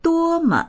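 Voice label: "duo1me", Mandarin Chinese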